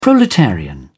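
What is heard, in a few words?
"proletarian", British English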